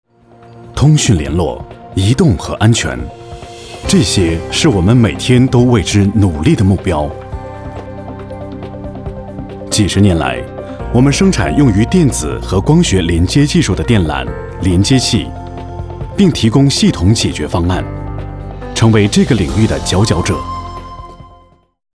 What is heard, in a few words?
VOICES ENGLISH Male Adult; KERSOUND